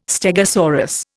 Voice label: "stegosaurus", Indian

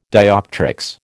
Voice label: "dioptrics", American

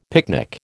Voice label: "picnic", American

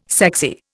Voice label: "sexy", Indian

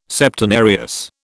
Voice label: "Septenarius", British

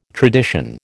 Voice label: "tradition", American

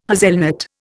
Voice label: "hazelnut", Indian

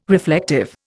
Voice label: "reflective", Indian

